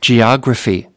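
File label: "geography", English word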